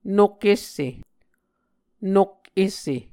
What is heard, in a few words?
"nok esse", Muscogee